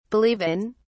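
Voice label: "believe in", British English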